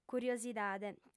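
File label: "curiosidade", female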